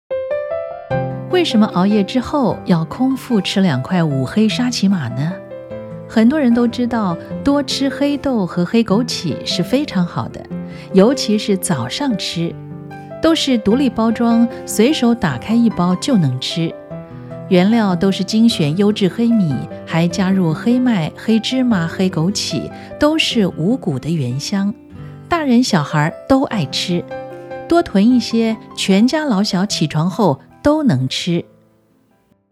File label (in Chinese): 新媒體自然親和